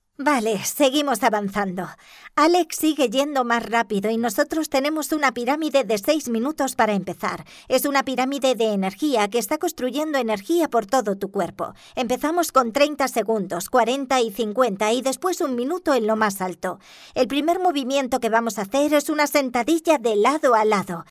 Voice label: locutora spanish voiceover